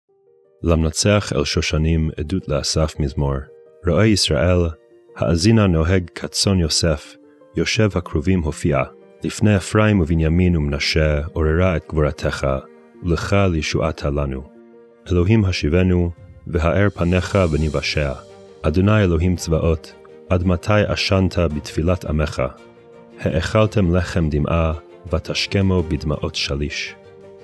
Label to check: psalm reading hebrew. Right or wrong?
Right